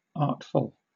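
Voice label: "artful" English, British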